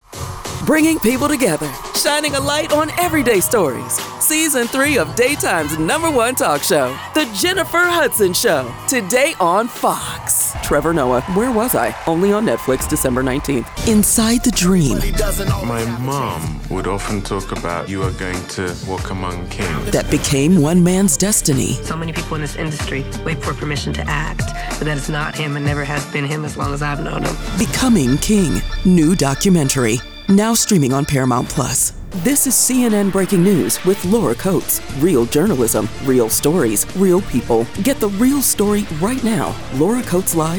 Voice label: Promo